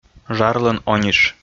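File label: "жарлӧн ониш", Komi-Zyrian, male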